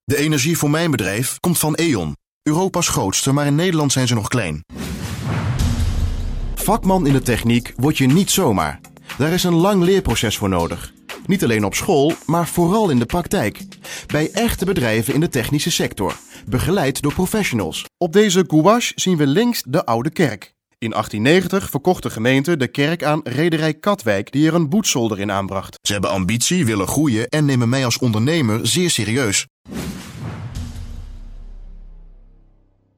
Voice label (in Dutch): ZAKELIJK NEUTRAAL